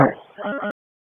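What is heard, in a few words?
Raleigh Police Special Operations Tactical, p25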